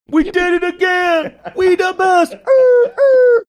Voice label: saying we did it again we da best making air horn sounds